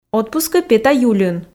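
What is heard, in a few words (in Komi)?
отпускӧ пета юльын, Komi, female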